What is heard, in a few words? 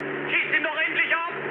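German aircraft damaged mood low, speech; War Thunder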